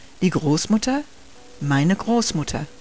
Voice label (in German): "grossmutter", german